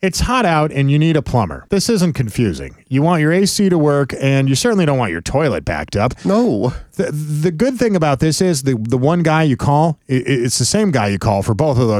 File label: RADIO AD; nicks plumbing radio ads